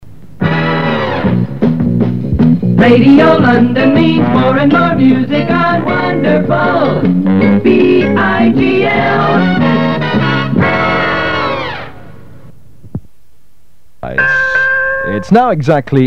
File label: Radio London Pirate Radio Jingles